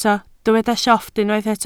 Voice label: "llofft", Welsh

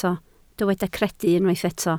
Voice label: "credu", Welsh

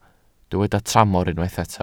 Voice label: "tramor", Welsh

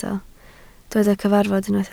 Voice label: "cyfarfod", Welsh

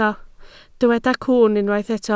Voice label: "cwwn" Welsh

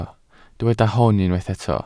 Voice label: "hwn" Welsh

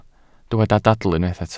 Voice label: "dadl" Welsh

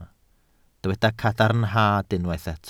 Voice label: "cadarnhad", Welsh

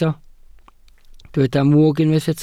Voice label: "mwg", Welsh

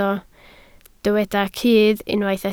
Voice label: "cudd", Welsh

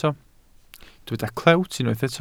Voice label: "clewt" Welsh

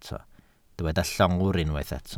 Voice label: "llongwr", Welsh

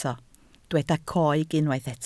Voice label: "coeg", Welsh

